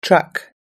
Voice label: "truck", us english